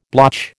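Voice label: "blotch", American English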